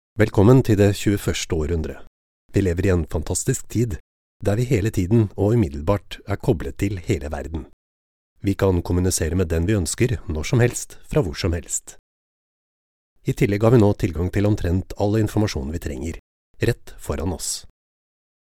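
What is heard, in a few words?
Norwegian, voiceovers other languages; foreign languages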